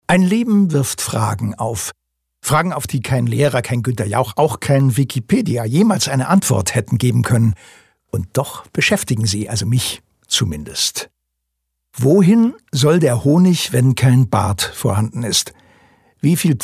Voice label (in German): Schauspiel Dynamisch